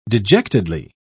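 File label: "dejectedly", British English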